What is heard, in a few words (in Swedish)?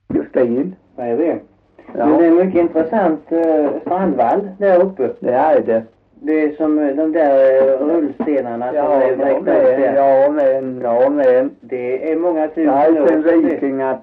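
Torhamn Blekinge, dialekter; Folkminnen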